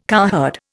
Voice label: "cowherd", Indian